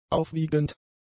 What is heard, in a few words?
"aufwiegend", German; adjectives